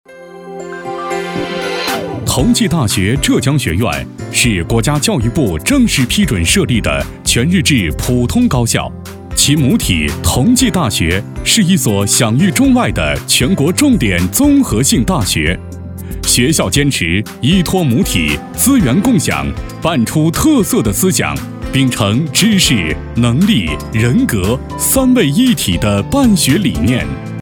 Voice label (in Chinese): 男 端庄稳重 大学宣传片